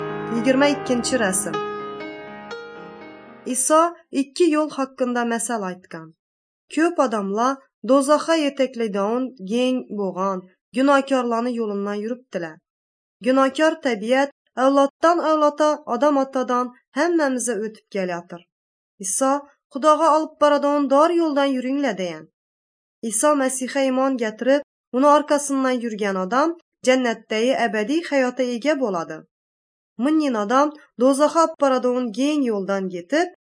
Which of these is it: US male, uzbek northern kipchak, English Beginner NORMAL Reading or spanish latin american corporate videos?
uzbek northern kipchak